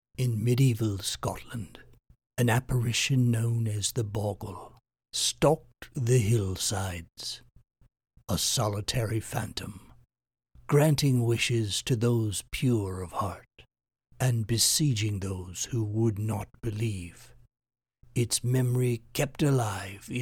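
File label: Scary Narrator Voice, male voice; Audiobooks; Phantom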